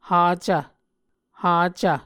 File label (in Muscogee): "hacv", Muscogee